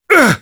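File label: Sniper pain sharp, German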